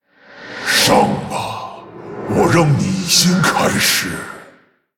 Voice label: Male Orc, Go. I'll give you a head start.; ▶上吧！我让你先开始。; 死亡猎手雷克萨; Greeting

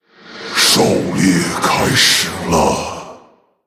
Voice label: Male Orc, Let the hunt begin!; Opening Remark; ▶狩猎开始了！; 死亡猎手雷克萨; 开场白

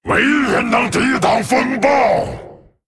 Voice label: Male Dragon Attack; zh; 攻击; 风暴巨龙迦拉克隆

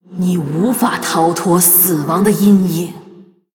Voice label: Female Blood Elf Play; ▶你无法逃脱死亡的阴影！ ▶You cannot escape the shadow of death.; 虚空之影瓦莉拉; 开场白(镜像); Opening Remark(Mirror)

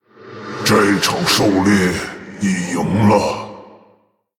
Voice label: Male Orc, This hunt is yours.; Concede; 死亡猎手雷克萨; 投降

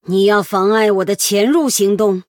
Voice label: Female Human Start, Opening Remark; 开场白; 对决模式; 渗透者莉莉安

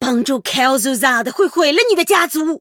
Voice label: Female Human Start; 对决模式; 渗透者莉莉安; 对战：裂心者伊露希亚; Start:Mindrender Illucia

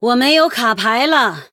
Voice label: Female Human No Cards, Out of cards; 对决模式; 渗透者莉莉安; 牌库空

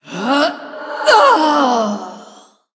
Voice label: Female Blood Elf Death; zh; 死亡; 虚空之影瓦莉拉

